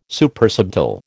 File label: "Supersubtle", American